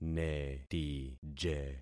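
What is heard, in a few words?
"netije", concatenated syllables; Affixing experiment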